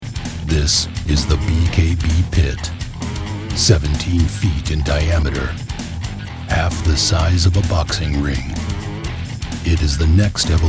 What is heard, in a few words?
Promo; BKB PIT PPV